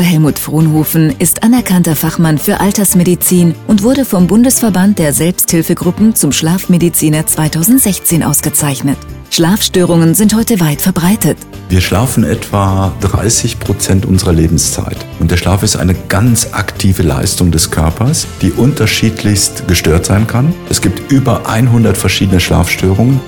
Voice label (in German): Infomercial Medizintipp